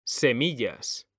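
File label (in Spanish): "semillas", Spanish; mekanta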